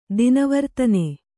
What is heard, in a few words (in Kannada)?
"ದಿನವರ್ತನೆ", Kannada